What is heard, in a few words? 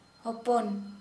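son, Santali, female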